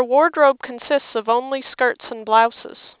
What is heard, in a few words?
nonlinear smartphone ref; reference speech signal; Reference signal spectrogram and reference speech signal